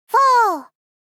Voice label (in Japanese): "4（フォー）", 少女×中音域